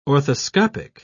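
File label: "orthoscopic", British English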